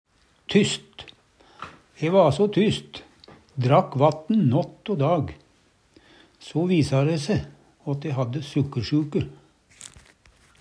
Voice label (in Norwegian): tyst, Numedalsmål